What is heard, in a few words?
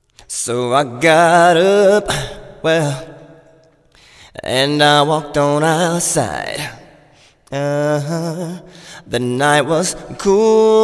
Vox Room Wet; csr room